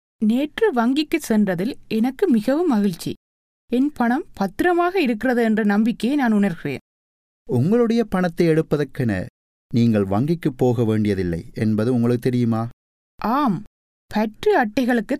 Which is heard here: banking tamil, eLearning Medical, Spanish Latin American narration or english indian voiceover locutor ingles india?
banking tamil